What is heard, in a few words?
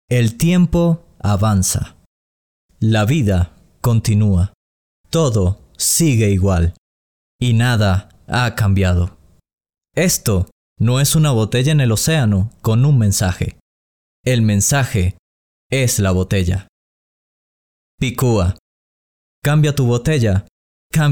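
Spanish Latin American, Male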